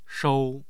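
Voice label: "shou1", Mandarin Chinese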